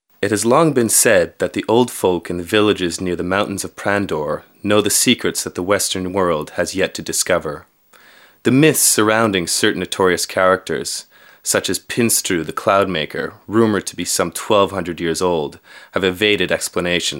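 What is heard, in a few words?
F EN documentary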